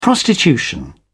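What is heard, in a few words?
"prostitution", British English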